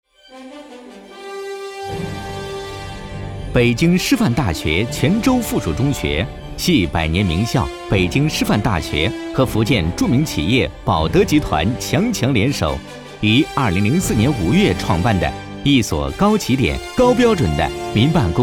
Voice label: 专题, 激情明亮

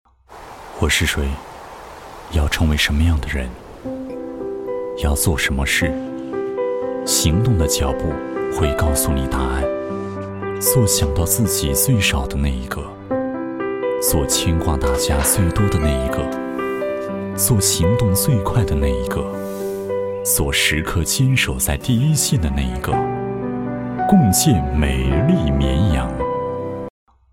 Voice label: 男 旁白 宣传, 国语男声配音, 浑厚磁性; 共建魅力绵阳 党建宣传

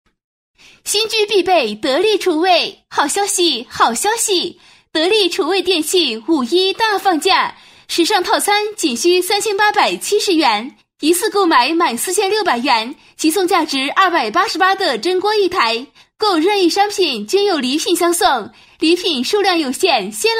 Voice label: 女 好消息, 国语女声配音 彩铃广告; 得力厨卫五一放价

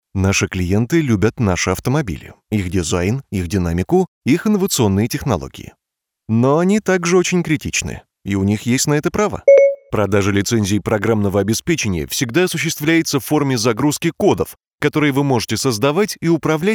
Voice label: 外籍西语男 叙述 Narratio

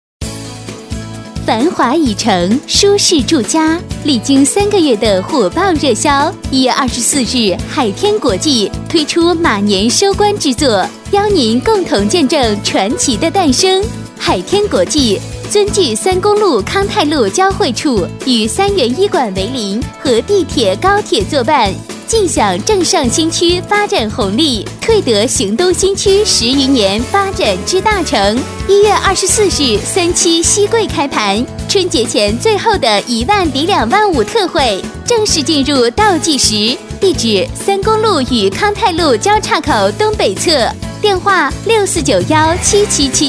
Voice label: 女 年轻甜美, 广告促销 [温柔大气] 房产; 海天国际; 国语女声配音

